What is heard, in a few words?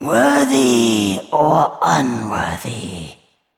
Female Val'kyr Thinking